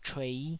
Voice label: "chuei5", Taiwanese